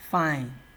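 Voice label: "fine", Nigerian Pidgin, male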